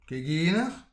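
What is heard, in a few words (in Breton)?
"keginer", Breton; petit precis de prononciation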